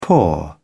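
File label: "poor", British English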